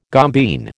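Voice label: "gombeen", American English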